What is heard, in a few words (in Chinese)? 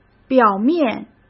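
"表面", Mandarin; chinese flashcards; hsk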